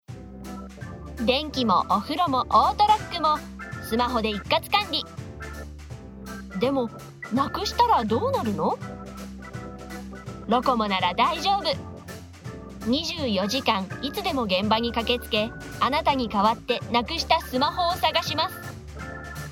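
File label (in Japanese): ナレ 広告, ボイスサンプル; koukoku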